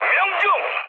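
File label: Korean bomb success mood high; War Thunder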